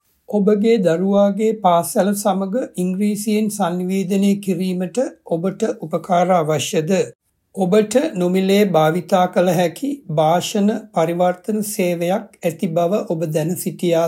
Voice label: sinhalese, audio message